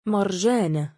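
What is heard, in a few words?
female name "marjaanah", Arabic; مَرْجَانَة; マルジャーナ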